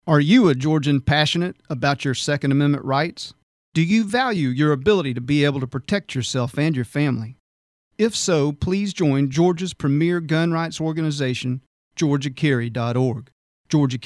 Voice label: PSA; GEORGIA CARRY ORG